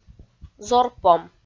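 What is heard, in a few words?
"зорпом", Komi, female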